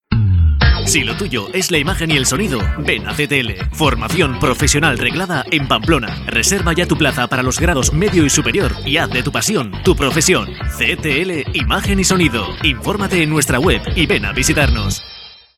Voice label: spanish commercial